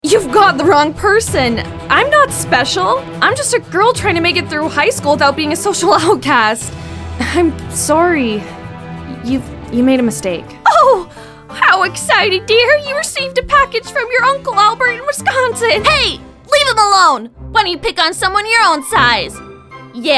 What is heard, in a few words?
Animation VO